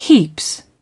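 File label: "heads", British English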